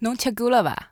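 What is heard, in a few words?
shanghai, Chinese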